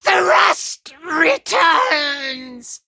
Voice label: Male Imp, Death

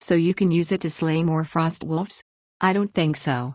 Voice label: Female Orc; Rokara; Scabbs

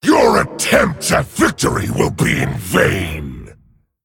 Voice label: Male Dragon Start

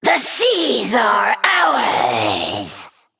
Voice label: Female Naga; Darkscale

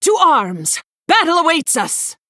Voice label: Female Human Bark; Balinda Stonehearth

